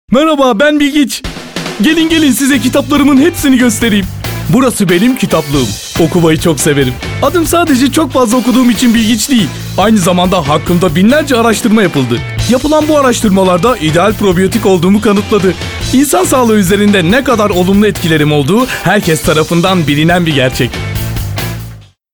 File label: elearning; probiyotik bilgic